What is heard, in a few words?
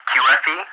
"QFE", ENG, ATC; DCS